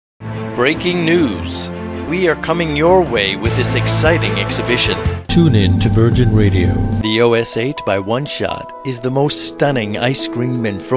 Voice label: English US